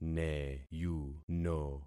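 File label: "neyuno", concatenated syllables; Affixing experiment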